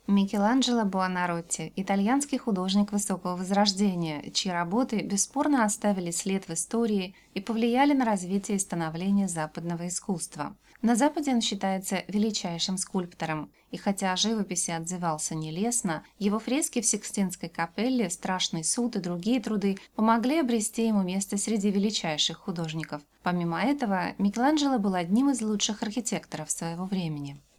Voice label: Voice Rus